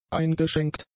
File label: "eingeschenkt", German; adjectives